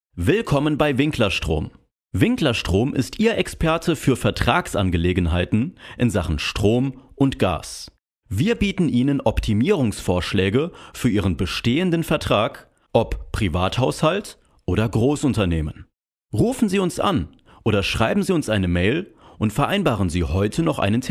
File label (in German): Werbung